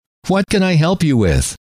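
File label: what can I help you with, male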